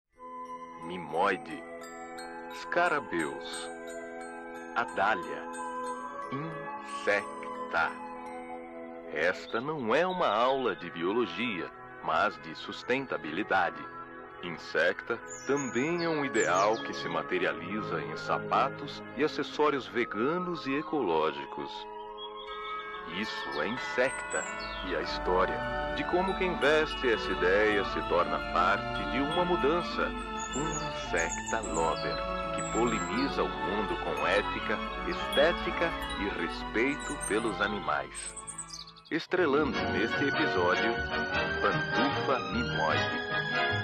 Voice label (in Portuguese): Locução caricata retro; Insecta